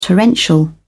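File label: "torrential", English, British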